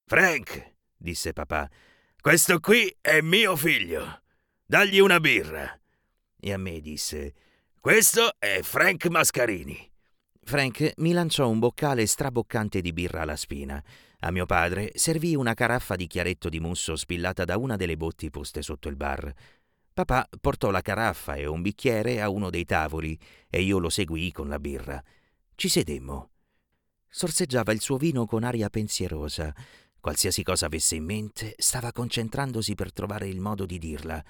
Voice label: italian narration

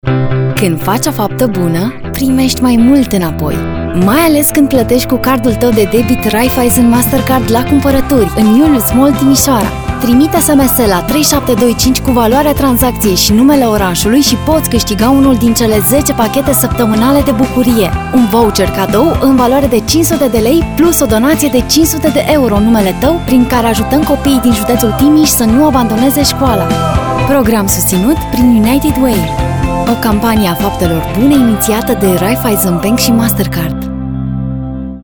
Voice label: romanian commercial; romanian actress; romanian actress & voice artist

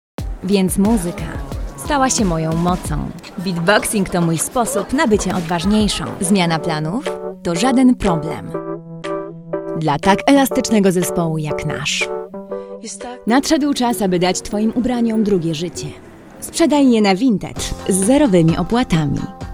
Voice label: polish commercial; británico y polaco, formada en la Mountview Academy of Theatre Arts de Londres; Nacido en 1990, actor de doblaje consumado con más de 5 años de experiencia

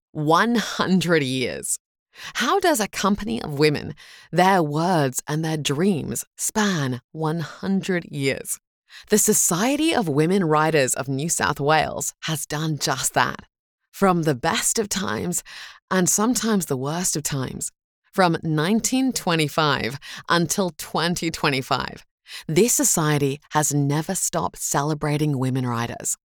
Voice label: English New Zealand political ads; voice actor

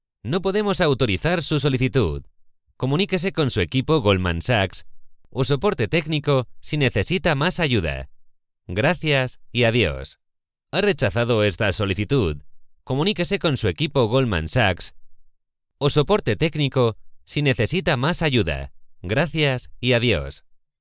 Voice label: spanish ivr

